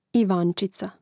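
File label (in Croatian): "ivancica", Hrvatski; mreznik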